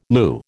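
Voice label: "lieu", American English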